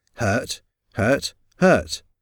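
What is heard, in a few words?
"hurt hurt hurt", English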